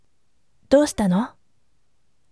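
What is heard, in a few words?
voice, firm; dousitano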